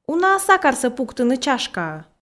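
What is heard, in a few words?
"уна-ӧ сакарсӧ пуктыны чашкаӧ", Komi, female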